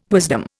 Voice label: "wisdom", Indian